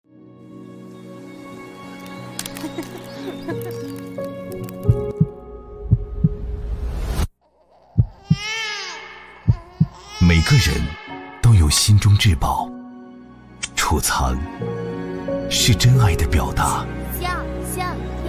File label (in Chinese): 男 广告; 五粮坊